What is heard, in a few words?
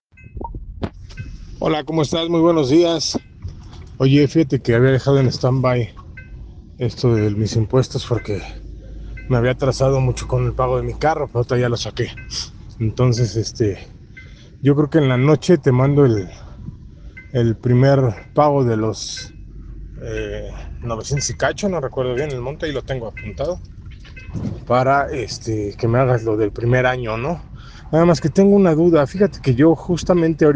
whatsapp ptt